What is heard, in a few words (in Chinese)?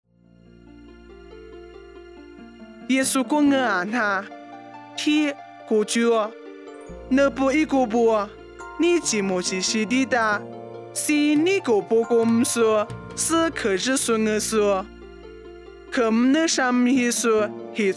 凉山彝语; 以色列低加波利; nuosu